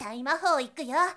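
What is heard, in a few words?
"daimahoikuyo", girl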